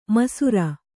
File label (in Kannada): "ಮೈಸಿರಿ", Kannada